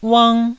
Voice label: "uang1", taiwanese Syllables